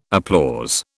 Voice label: "applause", British